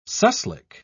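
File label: "suslik", British English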